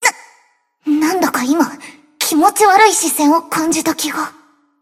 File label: Cafe Monolog; BA; Iori; Swimsuit